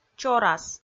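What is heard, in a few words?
"чорас", Komi-Zyrian, female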